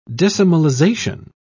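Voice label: "decimalisation", English, British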